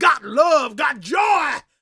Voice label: PREACHIN, Gospel, PREACHER; ILIO; Vocal Planet